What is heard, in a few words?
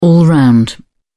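"all-round", English, British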